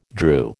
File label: "drew", American